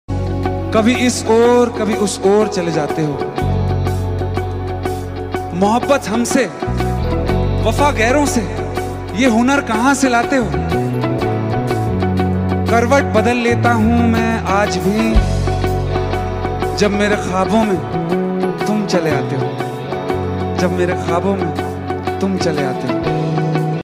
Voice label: Romantic shayari ringtone, hindi ringtone

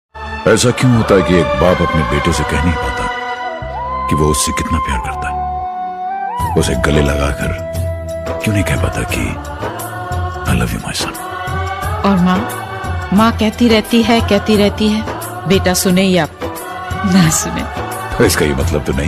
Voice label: Dialogue ringtone; Kabhi Khushi kabhi Gum

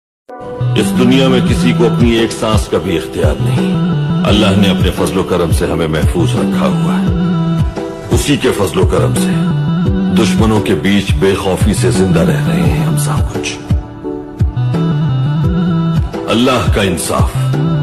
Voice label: islamic dialogue ringtone

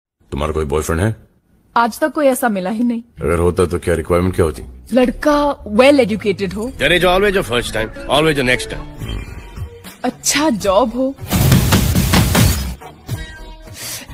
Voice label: dialogue ringtone, hindi ringtone; Salman Khan

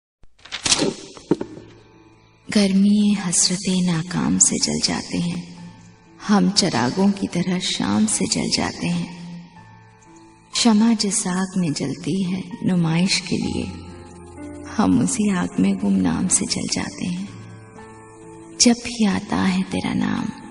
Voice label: Shayari dialogue hindi ringtone

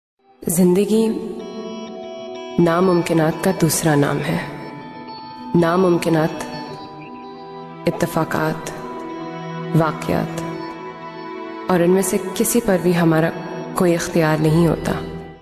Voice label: Dialogues ringtone; Zindagi Gulzar Hai

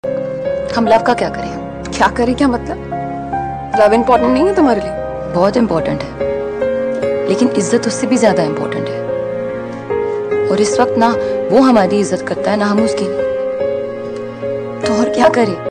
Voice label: Dialogue hindi ringtone

